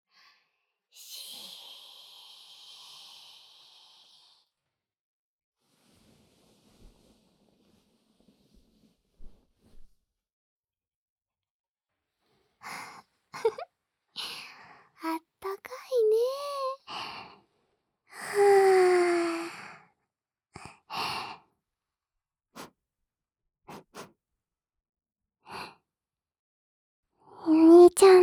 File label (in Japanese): 添い寝